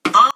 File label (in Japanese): "ぼ", Japanese